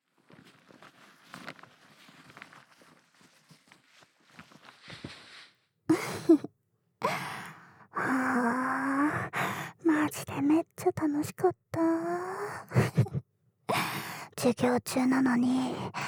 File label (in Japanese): ニヤニヤと満足気なあゆむが耳元で囁き